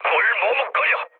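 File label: Korean attack position mood low; speech; War Thunder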